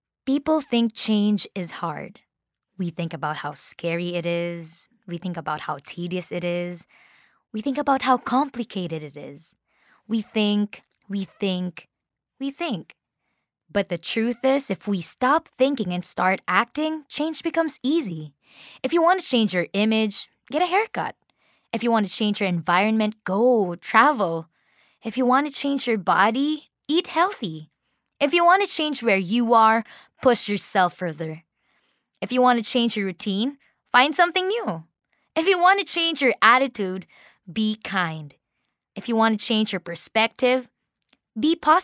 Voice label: English (Friendly, Confident)

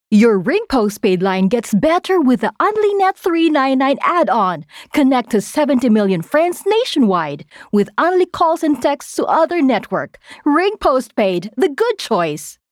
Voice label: English (Energetic, Excited, Selling)

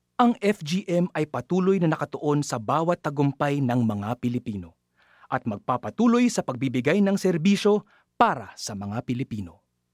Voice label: Tagalog (Professional, Sincere)

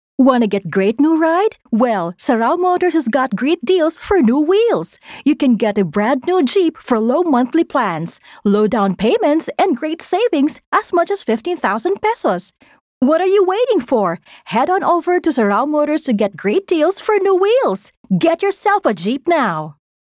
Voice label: English (Casual, Fun)

